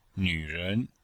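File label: "nv ren", Chinese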